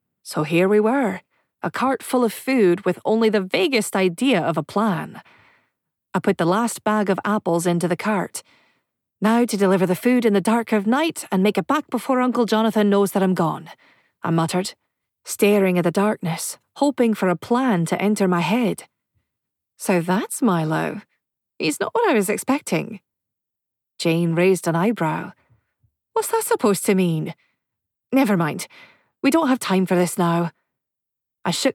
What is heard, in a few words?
Fairytale Retelling Scottish Accent; audiobook